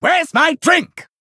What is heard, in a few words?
Male Venthyr Attack